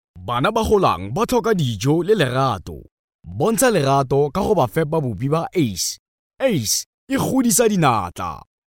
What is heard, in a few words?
male tswana voice over talent sales